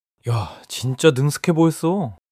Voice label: excitement